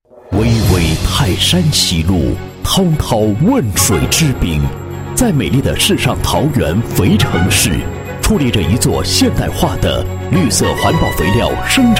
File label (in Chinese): 男 企业, 配音; 农保姆公司介绍